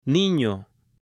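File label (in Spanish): "nino", Spanish, female; AppLenguaje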